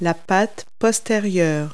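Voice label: "hind paw", English words; Multi-Lingual Talking Picture Dictionary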